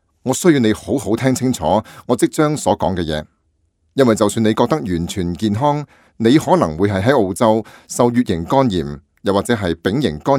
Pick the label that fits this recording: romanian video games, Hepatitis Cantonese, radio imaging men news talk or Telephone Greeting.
Hepatitis Cantonese